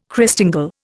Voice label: "Christingle", Indian